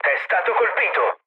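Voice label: Italian aircraft destroyed mood low, speech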